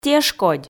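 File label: "тешкодь", Komi, female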